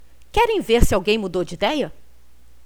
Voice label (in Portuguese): jogar De Novo Voz